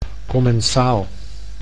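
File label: "commensaal", Dutch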